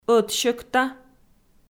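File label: "ӧтсьӧкта", Komi-Zyrian, female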